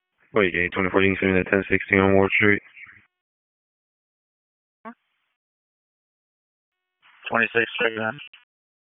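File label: Sheriff's Office Dispatch 1, p25; wake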